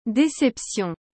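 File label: "deception", French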